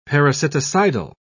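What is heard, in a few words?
"parasiticidal", British English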